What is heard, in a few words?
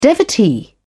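"devotee", British English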